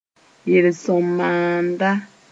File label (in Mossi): "yel-sõm-maanda", moore